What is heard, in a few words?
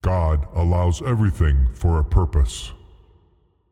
voice of god game phrase god allows everything for a purpose wet; fvtt sonniss gdc audio